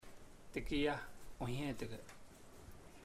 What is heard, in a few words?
I bought dog 4 u, chamacoco; Puerto Diana